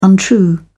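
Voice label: "untrue", English, British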